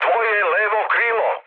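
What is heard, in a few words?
Serbian left wing mood med; War Thunder; speech srb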